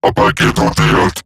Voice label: Cover Me Robot Large; Battle; Eximus; Eximus Corpus Robotic Large; en